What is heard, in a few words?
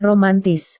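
"romantis", Indonesian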